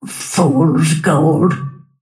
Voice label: lasthit pain; snapfire